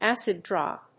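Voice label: "acid drop", English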